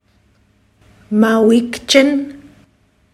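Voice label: Goodbye See You to one person, secwepemc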